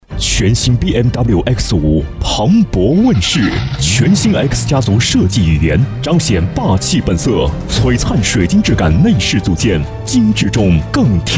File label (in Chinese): 汽车广告配音; 汽车广告配音宝马